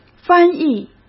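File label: "翻译", Mandarin Chinese; chinese flashcards; hsk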